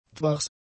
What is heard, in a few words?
"dwars", german; adjectives